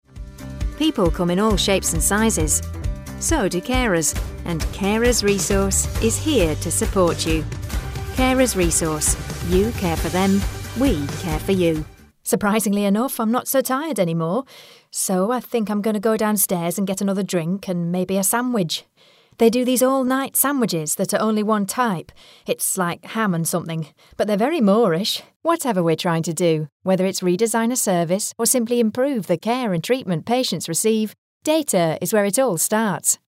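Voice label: British English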